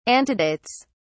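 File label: "antedates", British English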